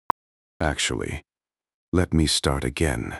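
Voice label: speaker male slow low, start again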